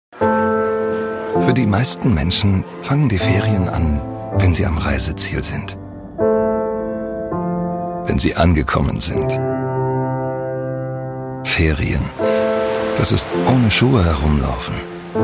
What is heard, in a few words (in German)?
DE Film; Edelweiss